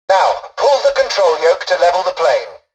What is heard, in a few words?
tutorial dialogue, english; War Thunder